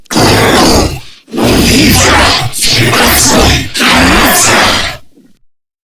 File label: Boss Speak Combat Taunt; Warframe; Lech Kril